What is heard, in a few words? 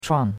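"chuang4", Chinese; Cours-de-Chinois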